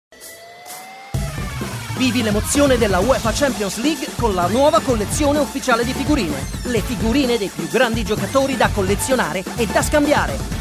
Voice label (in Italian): Spot; UEFA Champions League